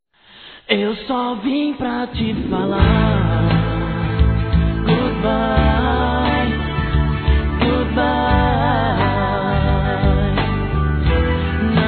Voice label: DESCULPAS POR NÃO IR AO CASAMENTO, masc; Telemensagens 2017

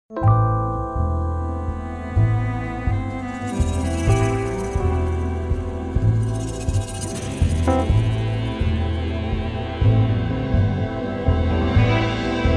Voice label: Conte Com Minha Amizade Feminino

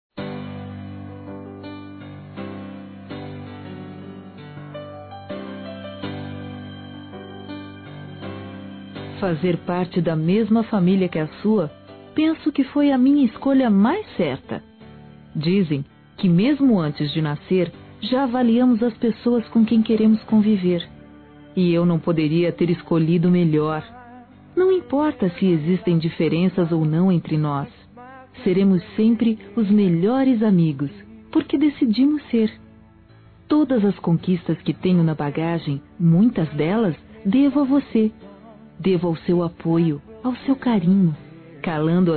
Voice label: IRMÃO F, Telemensagens, Aniversários, Fem; Aniv Familiar

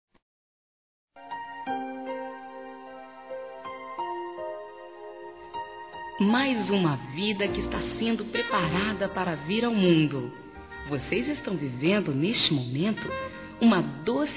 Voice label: FICOU GRAVIDA F; Aniversários; Aniversários diversos; fem

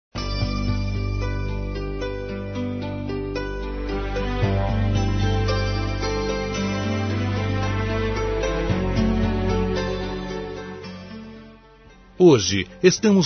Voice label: Natal e Ano Novo Amigo Masculino; Telemensagens; Misto

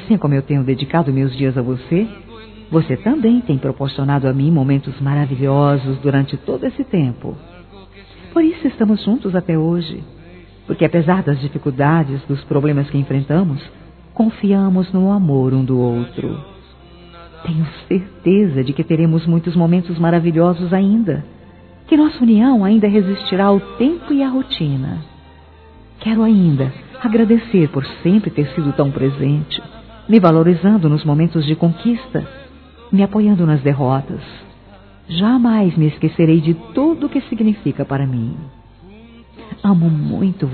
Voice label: Aniversário Esposo Feminino; Aniversários; ANIVERSARIO romantico

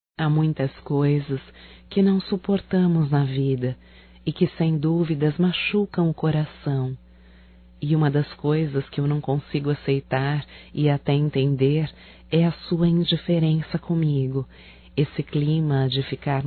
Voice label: ROMANTICA(F), Românticas, fem

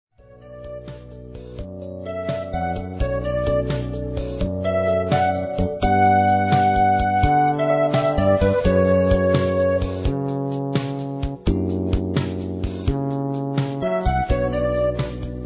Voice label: Bodas de Ouro Feminino, Telemensagens; Bodas e Casamento

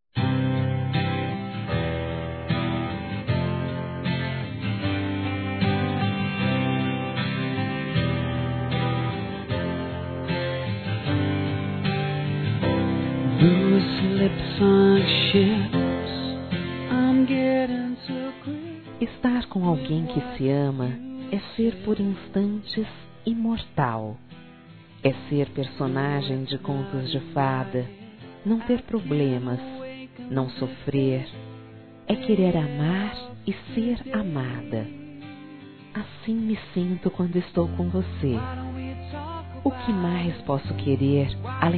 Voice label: ROMANTICA F

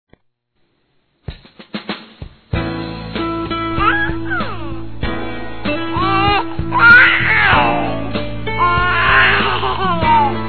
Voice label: Parabéns Futura Mamãe Feminino; Telemensagens; Felicitações; Telemensagens 2017

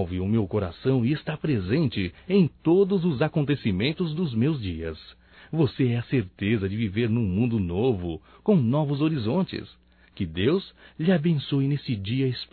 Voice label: Aniv Romântico (M), masc; Telemensagens 2017; Aniversarios

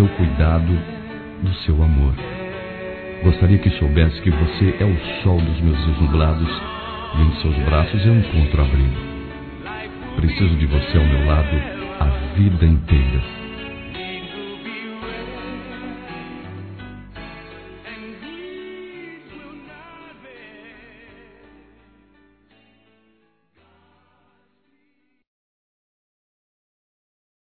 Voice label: Tudo que Conquista Masculino; Telemensagens; Conquistas Cantadas paqueras; Telemensagens 2017

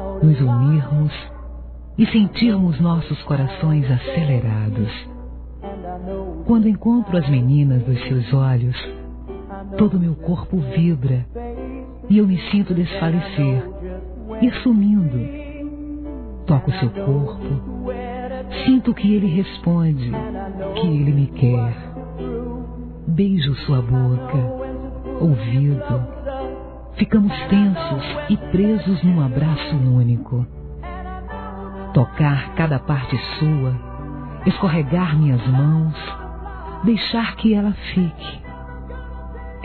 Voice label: Gostosa Sensação, Telemensagens Sensuais fem

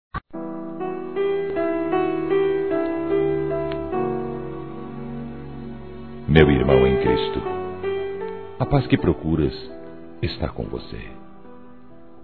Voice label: OTIMISMO EVANGÉLICO M; Telemensagens; masc

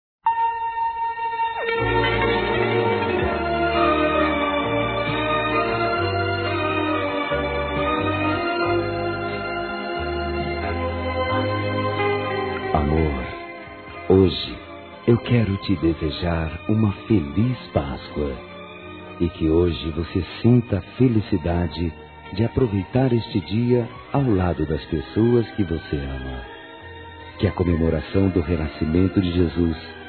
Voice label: PASCOA ROMANTICO

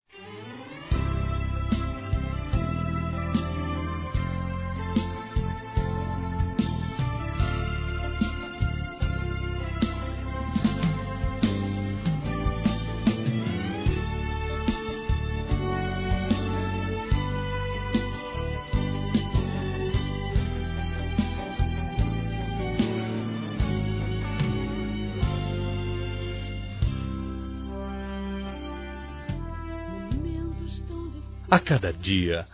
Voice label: Mensagem Para Amiga Masculino, Gospel

